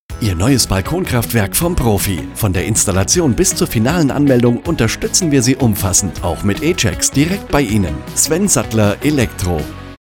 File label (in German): Funkspot; Informations Elektrotechnik Sattler GmbH